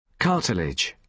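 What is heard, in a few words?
"cartilage", British English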